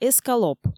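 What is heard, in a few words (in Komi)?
"эскалоп", Komi, female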